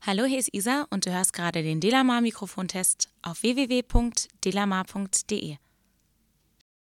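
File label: speech female; beyerdynamic tgx58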